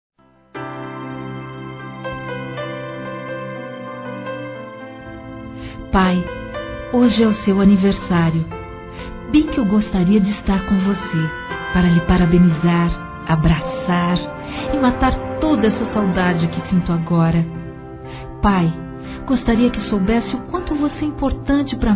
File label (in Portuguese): Pai Distante Feminino; Aniversários; Aniv Familiar